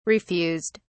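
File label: "refused", British English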